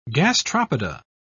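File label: "gastropoda", British English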